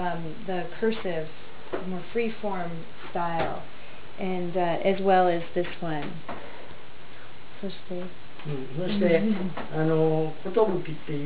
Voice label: calligraphy lecture; Asia; Japan; Calligrapher